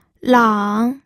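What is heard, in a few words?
"lang", Mandarin Chinese, third tone; pinyin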